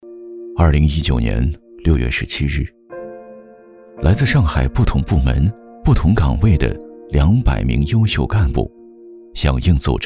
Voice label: 男, 专题; 崇明人

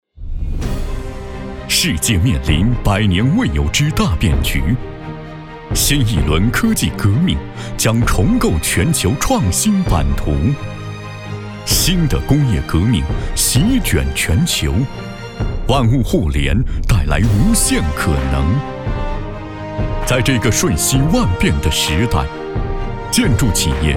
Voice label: 男 企业大气宣传; 广联达数字

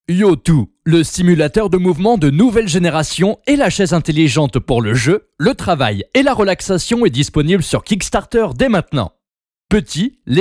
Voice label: 法语男, 干音解说

